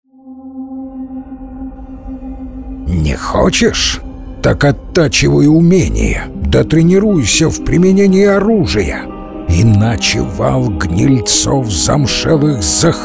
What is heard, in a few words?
book, dictory men